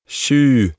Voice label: "sju", Norwegian